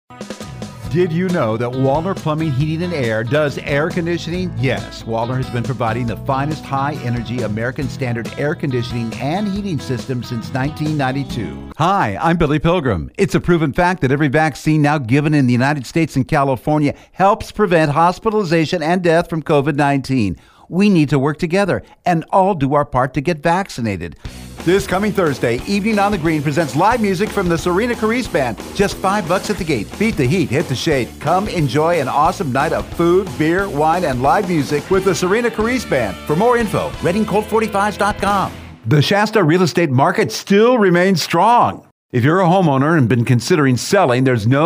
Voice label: Voice Demo; Billy Pilgrim